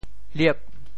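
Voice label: "liep8", Teochew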